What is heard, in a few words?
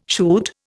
"shoot", Indian